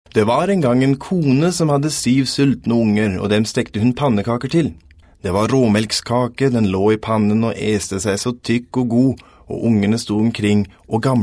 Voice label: Norwegian narration; Deutschsprecher aus Berlin